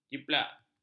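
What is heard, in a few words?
"jiplak", Malay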